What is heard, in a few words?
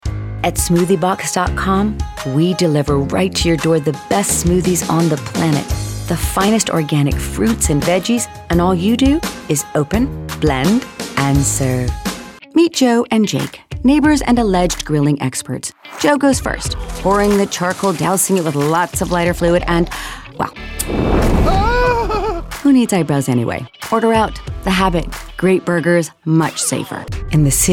Commercial